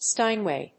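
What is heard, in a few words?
"Steinway", English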